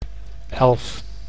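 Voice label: "elf", Dutch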